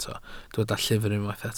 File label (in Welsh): "llyfr" Welsh